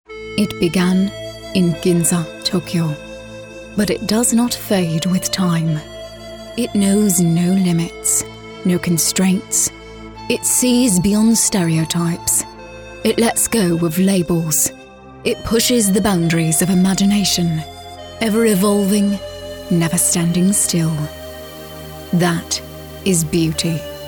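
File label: animation character, nonbinary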